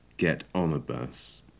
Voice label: "get on a bus", English